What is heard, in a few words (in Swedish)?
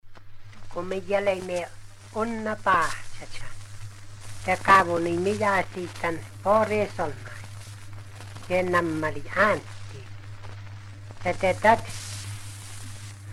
pratar och avslutar med ekorrens vuolle; folkminnen